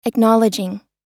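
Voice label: "acknowledging", US English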